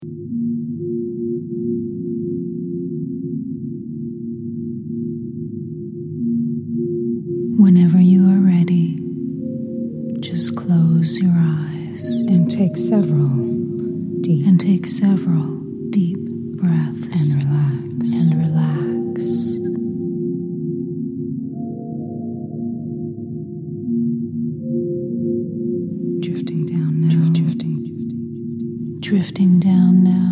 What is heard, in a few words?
hypnotherapy sample of voice